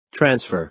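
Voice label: "transfer", American English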